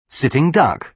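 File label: "sitting duck", British English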